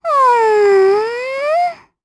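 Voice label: Vox Think, Japanese; Pansirone; kingsraid; voices heroes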